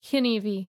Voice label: civ female hunt, greek

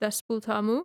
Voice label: female my lord; hellenes

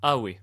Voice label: male hello, latin

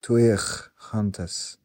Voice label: male walk, voice napatan civ

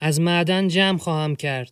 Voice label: male mine, persian

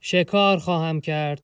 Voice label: civ male hunt, persian

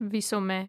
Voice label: civ female walk, hellenes

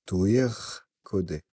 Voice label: civ male build, napatan

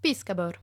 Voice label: female fish, latin

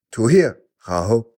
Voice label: civ male attack, napatan

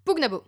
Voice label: fight, romans female

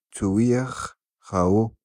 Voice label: male gather, voice napatan civ

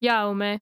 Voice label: civ female heal, hellenes

